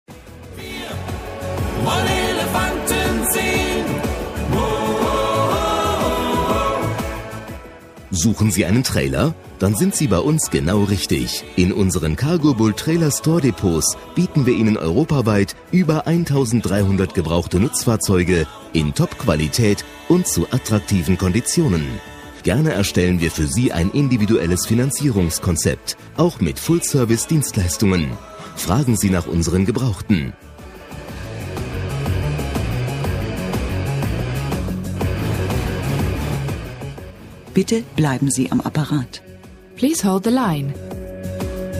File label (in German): Werbespot; Schmitz Cargobull